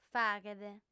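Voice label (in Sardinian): "faghet", female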